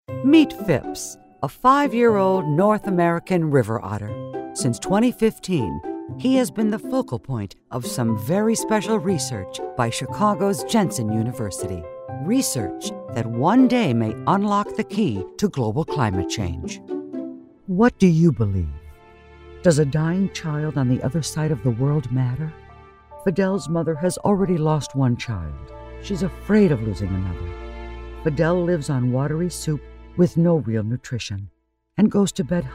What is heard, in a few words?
Narrations